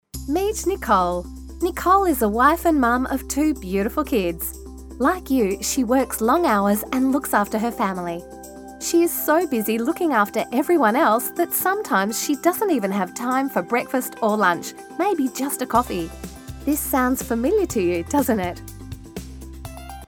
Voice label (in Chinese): 外籍英女, 人物介绍, 欢快